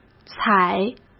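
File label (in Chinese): "踩", Mandarin Chinese; chinese-flashcards; hsk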